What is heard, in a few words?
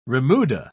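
"remuda", English, British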